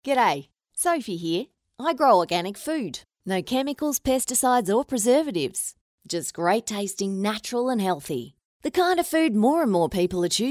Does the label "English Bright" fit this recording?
No